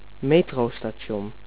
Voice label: "subway station", Dutch

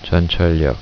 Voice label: subway station, korean

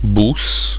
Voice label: "bus", Italian

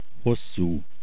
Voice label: Long, hungarian

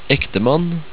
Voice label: "ektemann", Norwegian

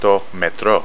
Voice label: Subway, greek

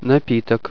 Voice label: "beverage", Russian

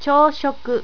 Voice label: "chohshoku", japanese

